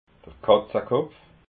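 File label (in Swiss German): "katzakopf", Alsatian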